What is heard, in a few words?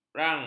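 "rang", Malay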